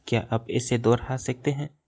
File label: say again, hindi